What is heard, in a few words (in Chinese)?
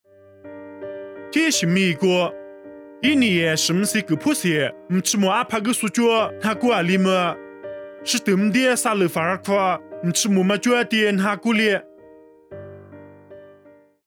凉山彝语; 以色列撒勒法